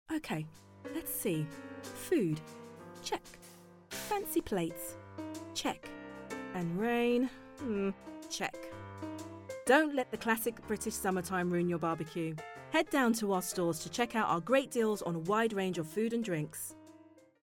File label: commercial